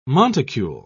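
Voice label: "monticule", British English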